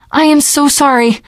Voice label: sorry, teen girl voice; survivor voice